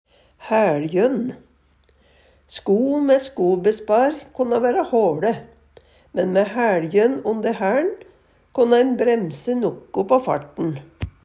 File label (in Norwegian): hæLjønn, Numedalsmål